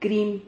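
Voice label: "crim", Spanish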